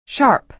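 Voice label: "sharp", American English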